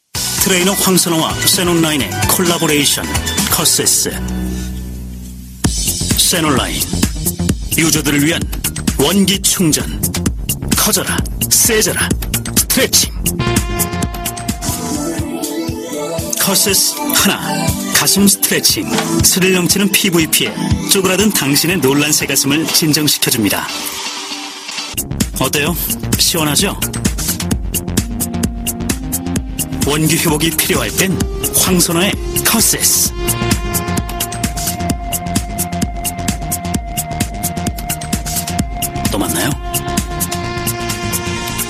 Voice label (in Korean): 다소 느끼한 끈적한 바이럴 광고